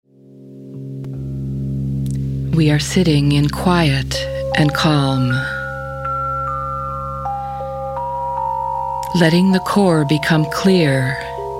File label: Spiritual Centering Female